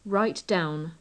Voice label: write down, english

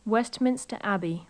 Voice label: westminster abbey, english

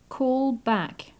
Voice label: "call back", English

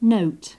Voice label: "note", English

